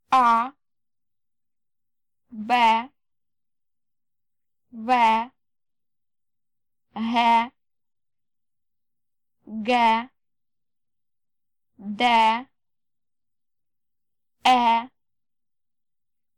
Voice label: female